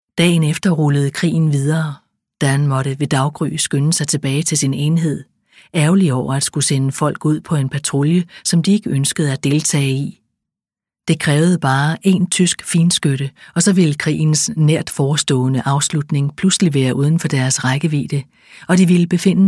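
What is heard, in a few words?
Danish, Audiobook, female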